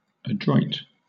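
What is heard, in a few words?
"adroit", British English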